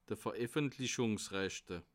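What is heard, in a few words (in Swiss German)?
"vereffentlichungsrechte", Bas Rhin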